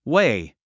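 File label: "weigh", English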